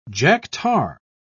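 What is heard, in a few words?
"jack-tar", British English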